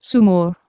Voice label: "sumor", Anglo Saxon

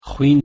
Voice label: "xwin", Northern Kurdish

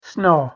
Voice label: "snaw", Scots

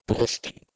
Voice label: "bhrsti" PIE

